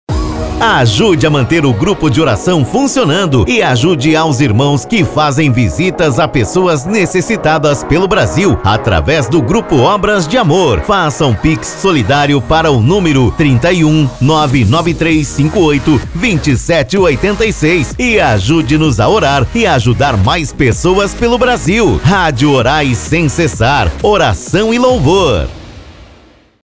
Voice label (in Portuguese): spot simples, spot comercial; GRUPO OBRAS DE AMOR